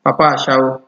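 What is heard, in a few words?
"papa ashawo", Nigerian Pidgin, male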